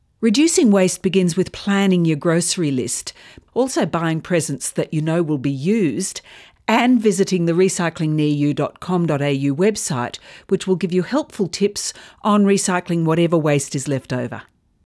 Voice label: radio grab